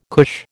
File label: "cush", American